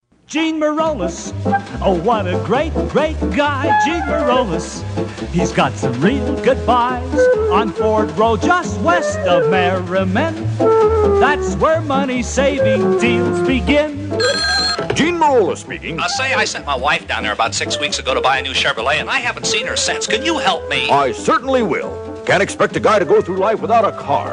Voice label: Radio Commercials; Gene Merollis Chevrolet